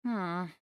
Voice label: Emote Negative